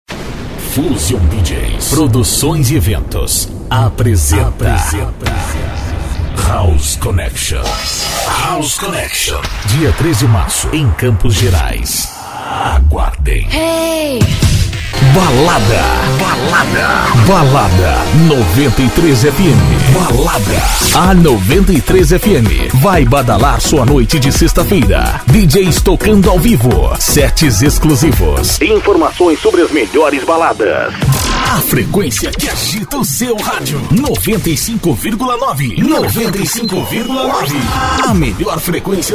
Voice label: locutor

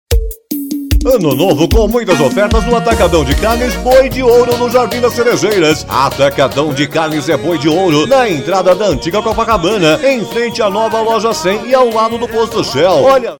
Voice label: locução varejo

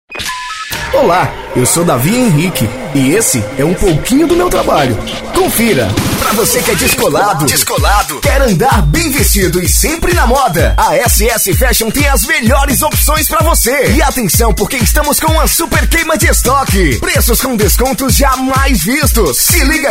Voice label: vinhetas offs locutor

